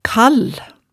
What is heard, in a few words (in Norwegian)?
"kall", hemsedalsmaalet tale